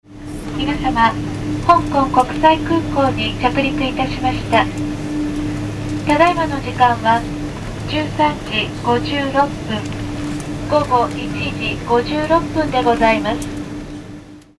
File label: airplane japanese